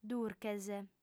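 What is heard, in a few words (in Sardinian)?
"durches", female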